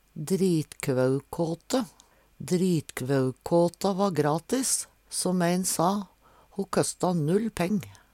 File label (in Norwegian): "dritkvaukåte", Numedalsmål